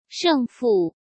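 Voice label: "胜负", Mandarin Chinese; hsk; chinese-flashcards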